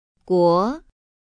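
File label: "guo", Chinese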